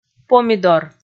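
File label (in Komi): "помидор", Komi, female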